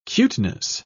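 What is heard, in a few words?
"cuteness", British English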